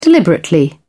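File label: "deliberately", British English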